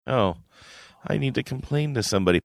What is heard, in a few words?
quietly saying oh i need to complain to somebody